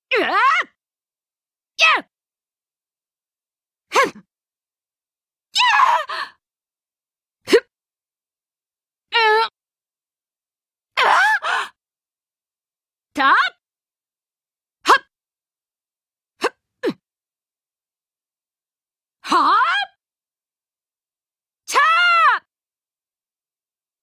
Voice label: female voice; komatsu yuka